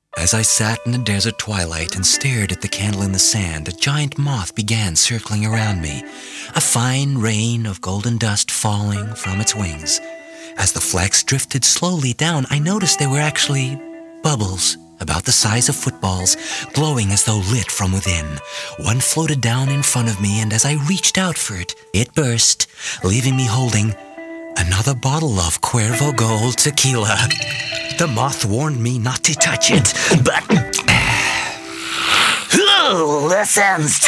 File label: male english multiple accents character compilation; commercial; cuervo gold 2